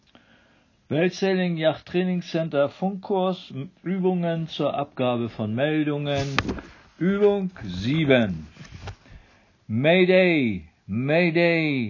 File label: Distress